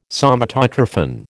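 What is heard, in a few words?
"Somatotrophin", American English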